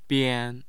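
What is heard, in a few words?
"bian", Chinese, first tone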